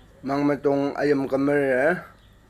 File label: converb intonation; Imdang